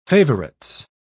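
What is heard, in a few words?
"favourites", British English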